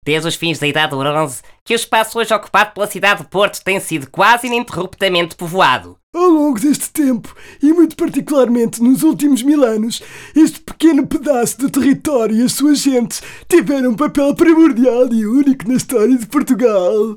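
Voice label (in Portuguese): EU PT PERSONAGENS, European Portuguese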